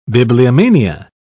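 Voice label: "bibliomania", British English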